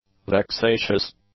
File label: "vexatious", English; adjectives